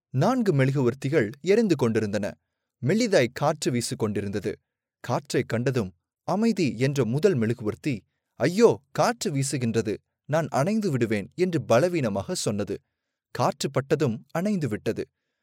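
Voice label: Tamil Sri Lanka male upbeat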